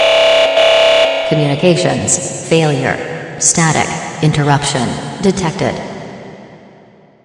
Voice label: announcement; sven; soiz

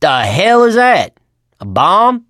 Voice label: chat; l4d2; ellis

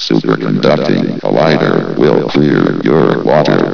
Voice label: chat

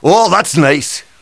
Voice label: cheer; tf2demoman

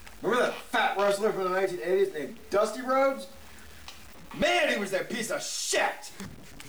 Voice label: Chat

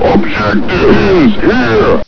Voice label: door talk, ambience; caged

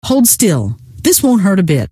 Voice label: hold still, scientist female

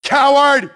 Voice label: "move", grunt; nimble grunt

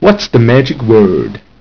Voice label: word machine speech

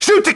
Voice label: ass, nimble grunt

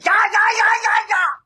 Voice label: cheer

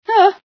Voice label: female sci fear

